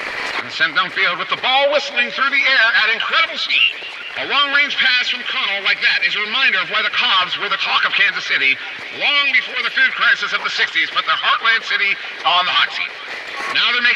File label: Transmission Echoes